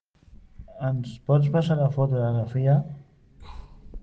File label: Voice message